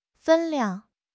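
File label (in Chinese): "分量", Mandarin Chinese; HSK6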